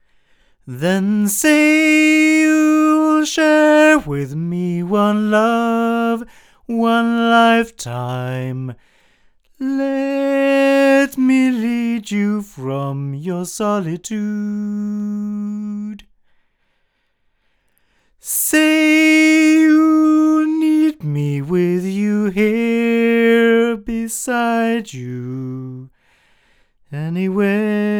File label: male